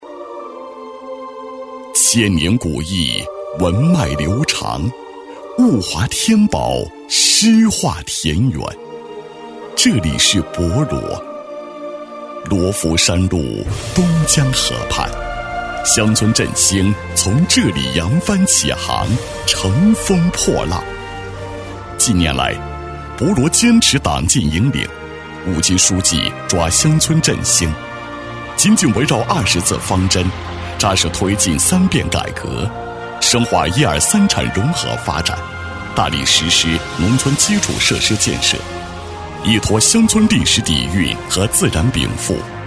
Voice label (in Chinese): 男 大气深情, 厚重大气 高端大气; 勇立潮头奋楫行